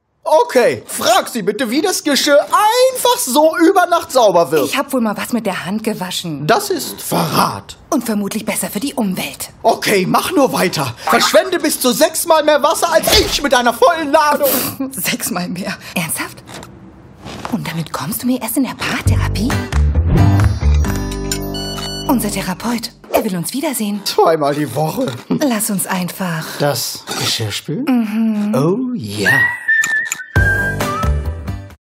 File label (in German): trickstimme; Sprecher in Wien, Köln; Muttersprache Deutsch; spuely verrat